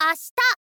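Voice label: "ashita", Japanese, girl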